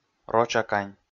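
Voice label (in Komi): "рочакань", Komi, male; kpv audio dict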